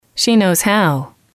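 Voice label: "she knows how", English, female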